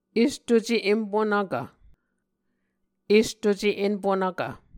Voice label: "estuce empunvkv", Muscogee

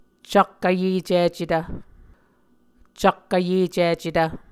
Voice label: "cak-vyecicetv", muscogee; vcak-vyēcicetv; Mvskoke Words with Voicing Consonants; A Dictionary of Creek/Muskogee; SIL International

